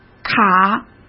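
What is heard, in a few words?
"卡", Mandarin Chinese; hsk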